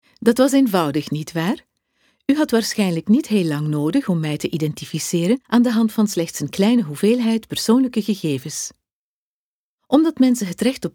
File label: e Learning Flemish